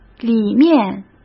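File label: "里面", Mandarin Chinese; hsk; chinese flashcards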